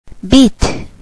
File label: "bit", spanish